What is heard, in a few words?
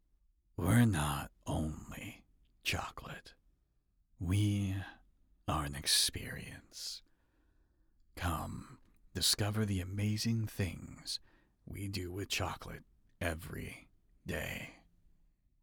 Commercial sample Sultry, Voice Actor